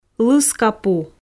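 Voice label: "лыска пу" Komi, female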